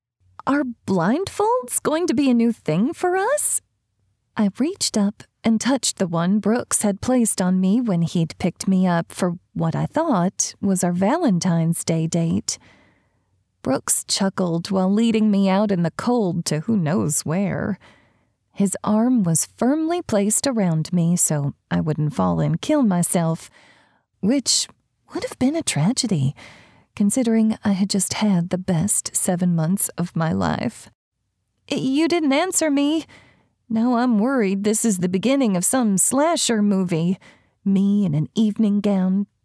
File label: Romance, Female / Male dialogue, 1st person, Southern accent; Audiobook Samples; Blindfolded engagement; MF banter